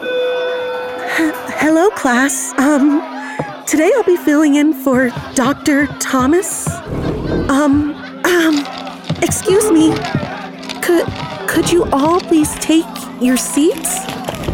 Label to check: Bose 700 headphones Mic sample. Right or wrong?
Wrong